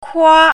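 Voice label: "kua1", Mandarin Chinese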